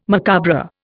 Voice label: "macabre", Indian

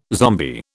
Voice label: "zombie", British

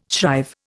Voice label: "shrive", Indian

